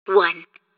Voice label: train announcer countdown; Hat in Time